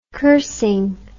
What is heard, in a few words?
"cursing", english